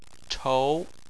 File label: "chou2", chinese